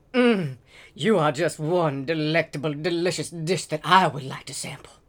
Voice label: Male Beggings